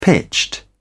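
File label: "pitched", British English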